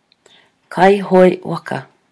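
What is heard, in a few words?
"kaihoe waka", Māori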